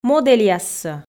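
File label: "модельяссӧ", Komi, female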